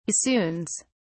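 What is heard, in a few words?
"bassoons", British English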